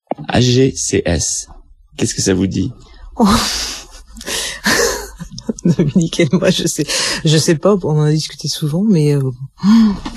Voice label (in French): micro trottoir; AGCS